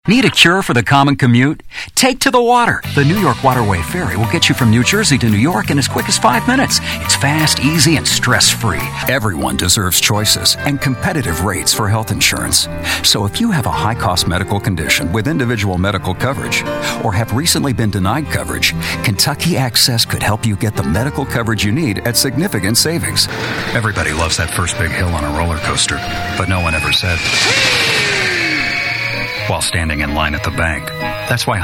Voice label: Male VO Talent